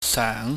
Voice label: "seung", pingyam